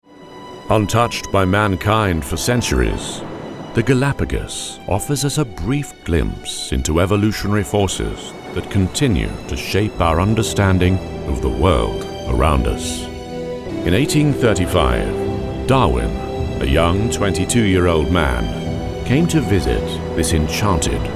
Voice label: DOCO Deep Epic Doco; GALAPAGOS